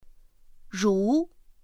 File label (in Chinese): "rú", pinyin; pinyin2; pinyinji